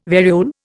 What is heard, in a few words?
"variole", Indian